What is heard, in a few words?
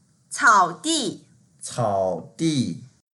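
"cao3di4", Mandarin Chinese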